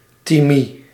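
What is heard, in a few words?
"-thymie", Dutch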